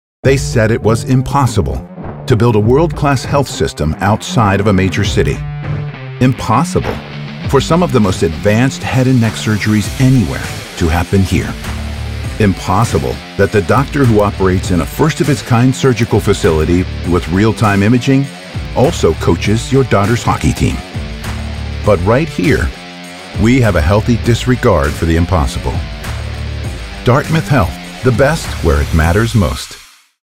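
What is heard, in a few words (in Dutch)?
Bedrijfsfilms Zakelijk, male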